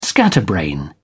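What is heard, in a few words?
"scatterbrain", British English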